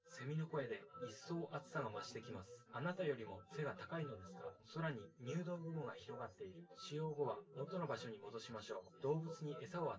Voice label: test male synthconv

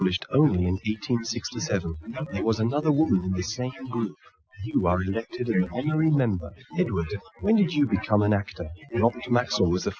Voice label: male inst src; SiSEC11; underdetermined

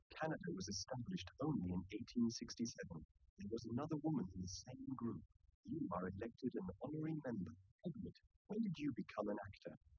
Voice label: male liverec; SiSEC10; underdetermined

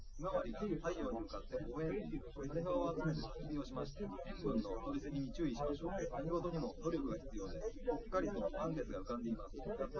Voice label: male liverec 250ms 5cm

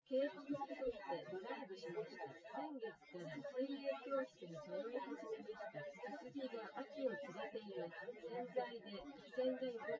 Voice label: female, synthconv, src; SiSEC08; SiSEC underdetermined

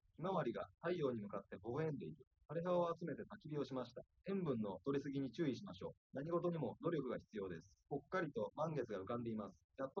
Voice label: male liverec; SiSEC10; underdetermined

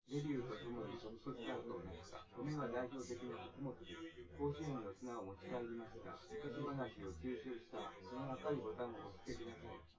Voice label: male synth conv

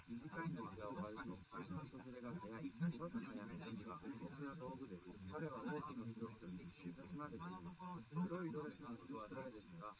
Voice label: male, live rec, 130ms; SiSEC11; underdetermined

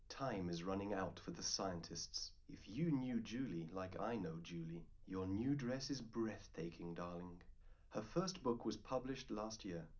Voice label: male4 liverec 250ms 5cm; SiSEC11; underdetermined

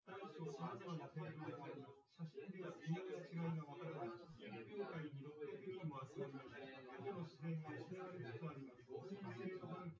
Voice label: test male synthconv 250ms 1m sim; SiSEC08; SiSEC underdetermined

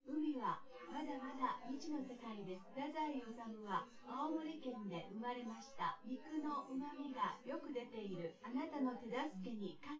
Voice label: female synth conv; SiSEC10; underdetermined

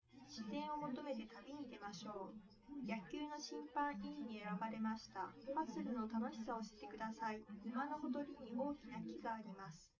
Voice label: female liverec; SiSEC11; underdetermined

